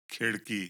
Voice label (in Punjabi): "khirdkee", Punjabi